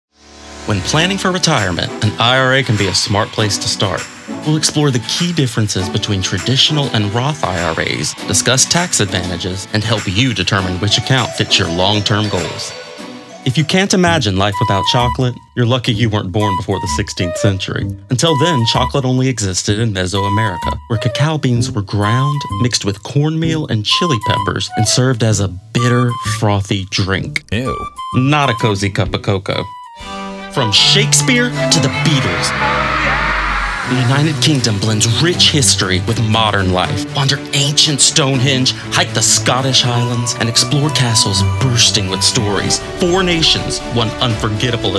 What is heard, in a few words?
NARRATION; Based near New Orleans